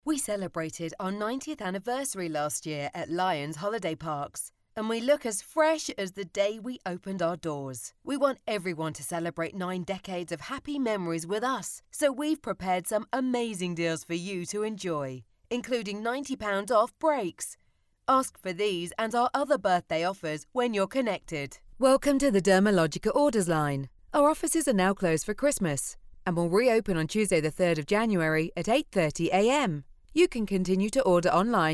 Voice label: english british ivr

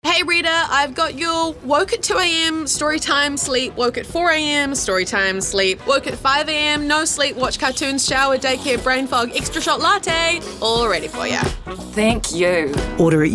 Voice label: english international radio imaging

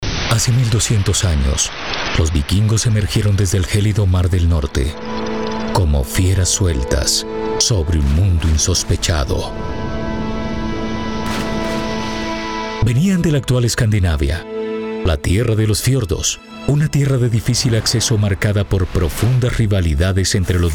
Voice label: spanish latin american narration

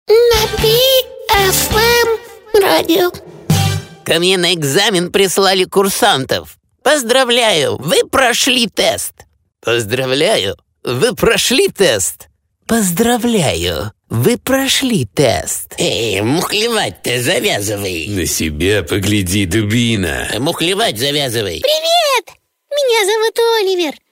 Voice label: russian animation

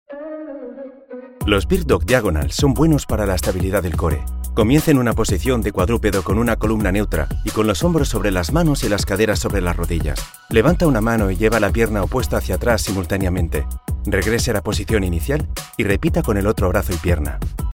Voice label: spanish explainer videos, spanish voice actors

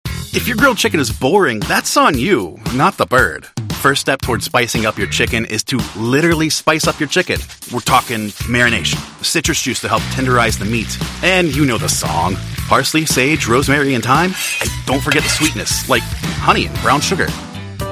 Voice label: english american narration

